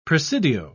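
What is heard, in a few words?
"presidio", British English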